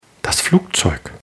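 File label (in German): "flugzeug", German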